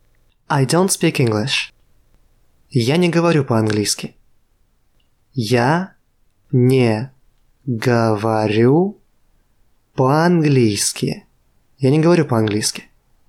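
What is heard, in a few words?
Russian I dont speak English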